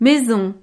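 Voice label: "maison", French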